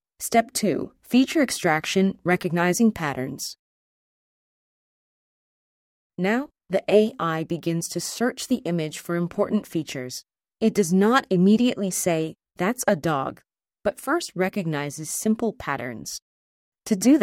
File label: english; schueler step 2